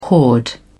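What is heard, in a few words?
"hoard", British English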